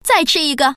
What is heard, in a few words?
chi4, common woman